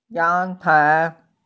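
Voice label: "yangtai", Mandarin Chinese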